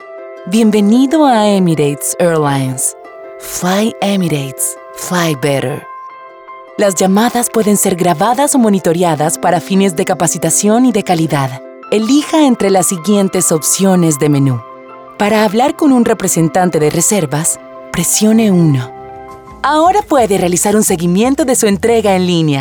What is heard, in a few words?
Demo IVR Voice Artist